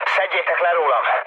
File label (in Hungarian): aircraft damaged mood med, hun; speech; War Thunder